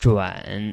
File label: "zhun3", Chinese